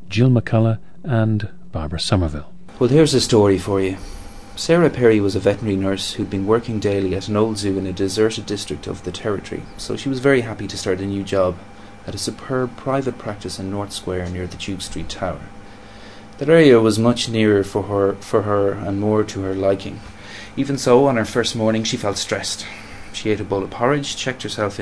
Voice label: ireland, dialects